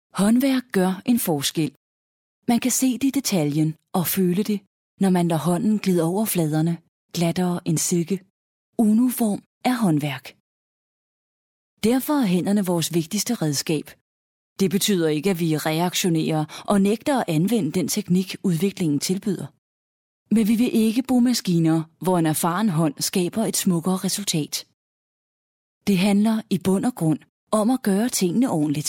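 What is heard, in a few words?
danish female narration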